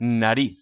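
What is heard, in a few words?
"nariz", Spanish; ktuberling; tdegames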